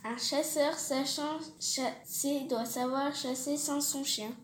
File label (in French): Virelangue